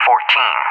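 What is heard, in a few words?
"14", English, USA; Numbers; DCS; Allied Flight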